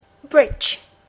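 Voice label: "bridge", English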